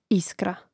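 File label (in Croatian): "iskra", Hrvatski; mreznik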